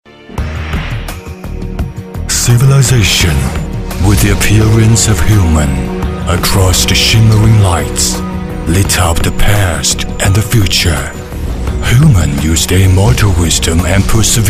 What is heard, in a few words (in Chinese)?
英男, 产品片头, 科技神秘